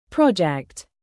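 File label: "present", noun, British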